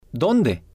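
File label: "donde", Spanish, male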